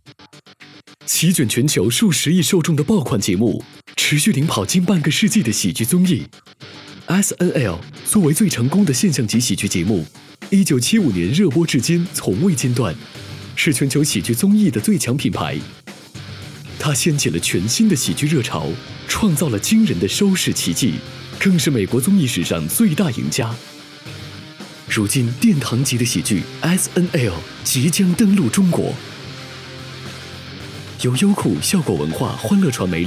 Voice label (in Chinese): 宣传片配音; 优酷SNL